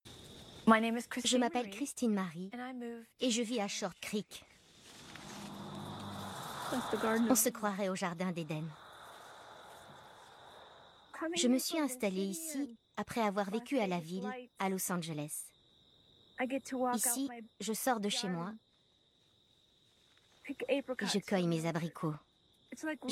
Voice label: Voice over femme documentaire; Discovery

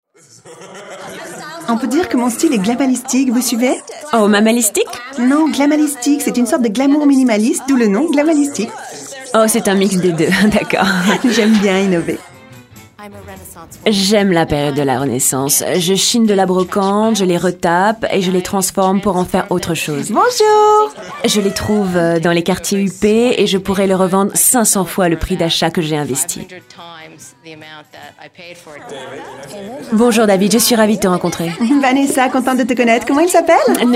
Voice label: voice over; Mamalistique

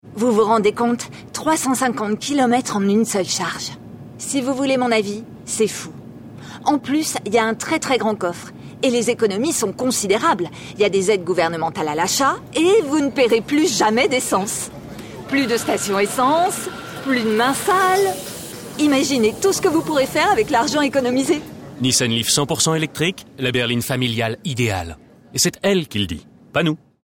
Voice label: doublage; Nissan Leaf Evangelist

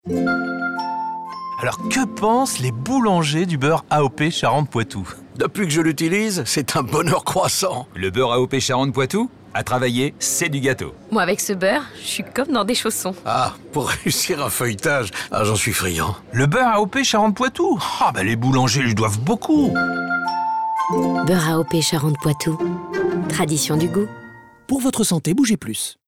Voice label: RADIO; BEURRE AOP CHARENTE POITOU; BougezPlus

